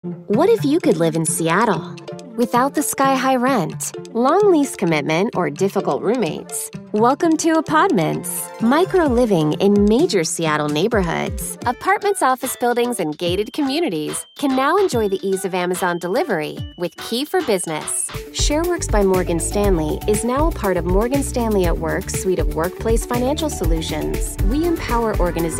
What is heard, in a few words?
women, narration